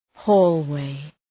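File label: "hallway", English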